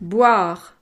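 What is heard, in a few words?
"boire", French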